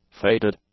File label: "faded", english; adjectives